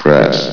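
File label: "press", male; valve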